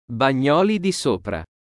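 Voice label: "Bagnoli di Sopra", Italian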